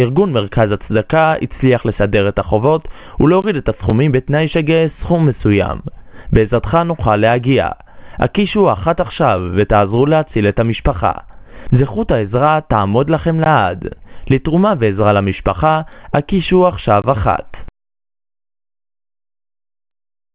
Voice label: Voice Message; הודעה קולית